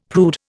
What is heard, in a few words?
"prude", Indian; Dictionary